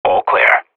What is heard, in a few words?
radio bot clear; Counter Strike Model Pack; CS:GO